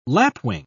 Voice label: "lapwing", pronounce

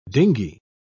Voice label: "dinghy", pronounce

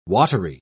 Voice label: "watery", pronounce

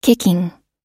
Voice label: "kicking", pronounce

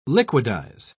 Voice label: "liquidize", pronounce

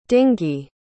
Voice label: "dingee", pronounce

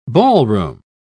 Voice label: "ballroom", pronounce

